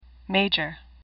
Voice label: "major", English; AWL; sublist 1

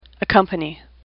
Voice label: "accompany", English; AWL

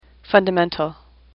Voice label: "fundamental", English; AWL

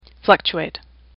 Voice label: "fluctuate", English; AWL

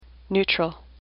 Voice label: "neutral", English; AWL

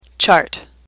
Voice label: "chart", English; AWL

